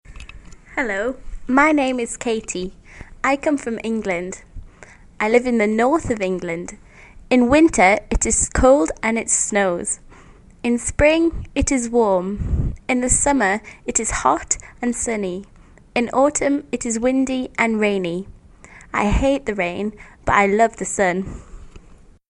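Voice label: GB weather in Northern England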